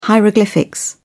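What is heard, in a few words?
"hieroglyphics", English, British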